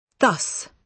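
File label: "thus", British English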